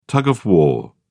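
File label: "tug of war", British English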